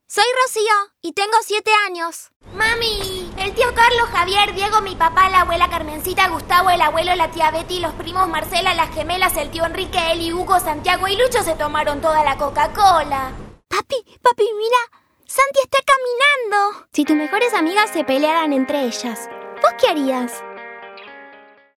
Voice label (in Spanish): 7 años